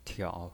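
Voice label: "tiao", pinyin voice, third tone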